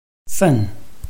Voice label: "fen4", Chinese